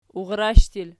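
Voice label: "urrsh" plural, Archi; urrash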